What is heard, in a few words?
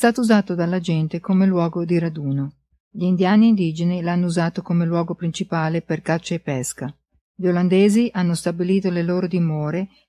Upper Landing Italian